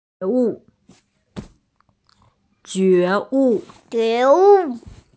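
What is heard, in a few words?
"jue2wu4", Mandarin Chinese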